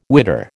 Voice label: "witter", American